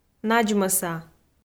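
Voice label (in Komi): "надзмӧса", Komi, female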